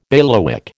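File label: "bailiwick", American English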